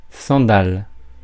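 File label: "sandales", French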